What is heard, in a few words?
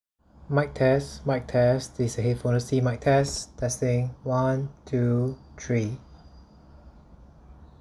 75t Quiet